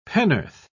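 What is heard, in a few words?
"pennorth", English, British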